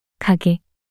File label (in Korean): "가게" 단어 여자